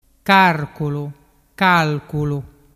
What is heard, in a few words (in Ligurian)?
"carculu calculu", dialettu